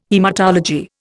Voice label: "haematology", Indian